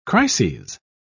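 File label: "crises", British English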